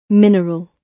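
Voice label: "mineral", British English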